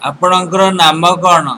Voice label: name, odia